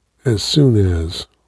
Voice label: "as soon as", us